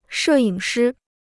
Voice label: "摄影师", Chinese

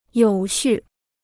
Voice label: "有序", Chinese

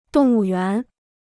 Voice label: "动物园", Chinese

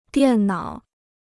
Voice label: "电脑", Chinese

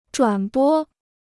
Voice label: "转播", Chinese

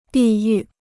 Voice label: "地域", Chinese

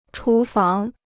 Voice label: "厨房", Chinese

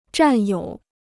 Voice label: "占有", Chinese

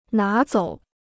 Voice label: "拿走", Chinese

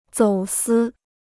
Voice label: "走私", Chinese

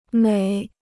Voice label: "每", Chinese

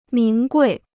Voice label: "名贵", Chinese

